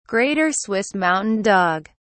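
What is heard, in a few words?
"greater swiss mountain dog", British English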